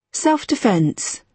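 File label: "self defence", British English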